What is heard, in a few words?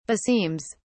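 "beseems", British English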